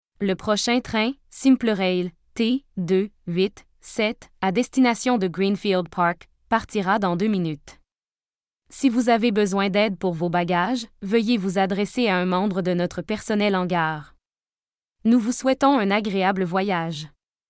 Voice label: train Departure, voice announcements